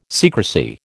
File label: "secrecy", American